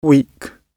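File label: "week", British English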